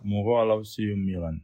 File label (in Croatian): romski; POP UP Zagreb